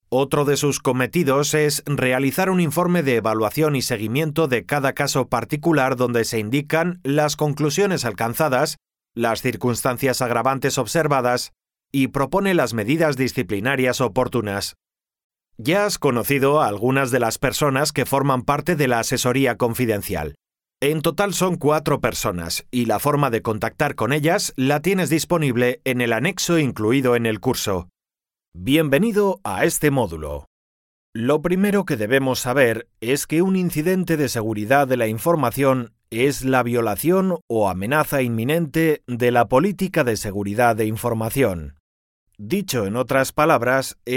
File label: Elerning; Demo Reel